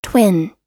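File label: "twin", US English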